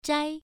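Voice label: "zhai1", Chinese pronunciation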